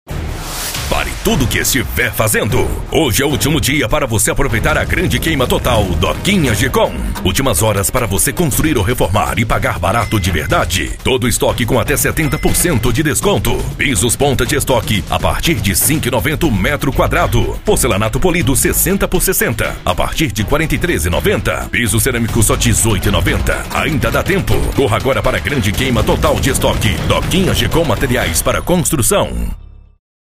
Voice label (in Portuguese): impacto varejo